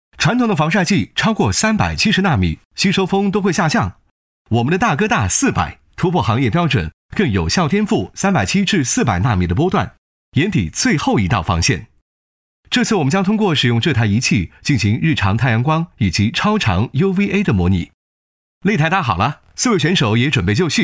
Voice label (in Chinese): 男 测评旁白 磁性轻快, 时尚科技 轻松活泼; 防晒力测验